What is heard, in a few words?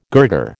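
"girder", American English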